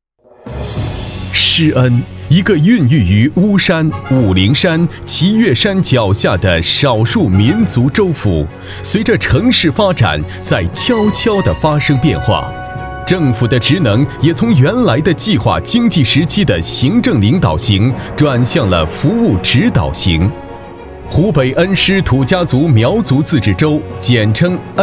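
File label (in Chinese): 男 专题（激情大气）, 国语男声配音; 恩施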